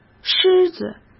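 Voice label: "狮子", Mandarin Chinese; hsk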